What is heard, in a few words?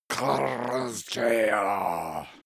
male curse evil